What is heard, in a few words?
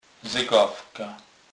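"zegawka", Polish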